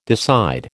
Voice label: "decide", American

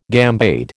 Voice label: "gambade", American

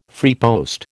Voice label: "freepost", American

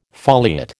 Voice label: "foliate", American

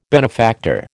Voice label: "benefactor", American

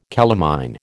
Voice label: "calamine", American

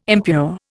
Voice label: "impure", Indian

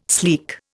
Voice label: "sleek", Indian

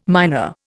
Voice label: "miner", Indian

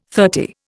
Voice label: "thirty", Indian

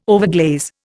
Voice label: "overglaze", Indian

